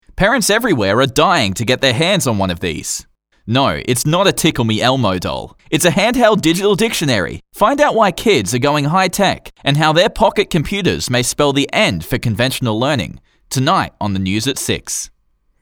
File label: News Ad